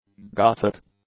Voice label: "Gothic", english; adjectives